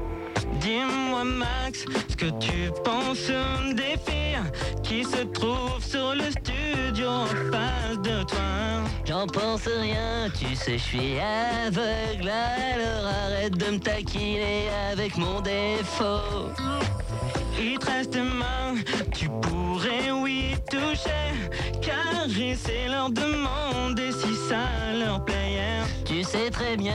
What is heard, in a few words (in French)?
impro